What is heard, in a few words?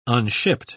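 "unshipped", British English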